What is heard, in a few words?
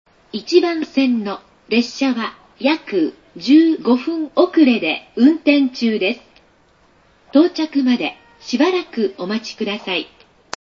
15min late announce